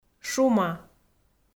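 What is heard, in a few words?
"шума", Komi, female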